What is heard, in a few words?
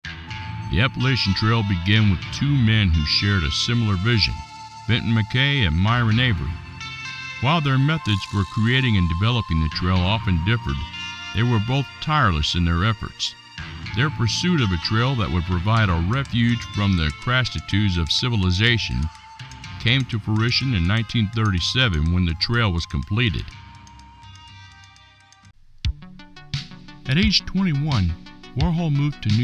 Documentary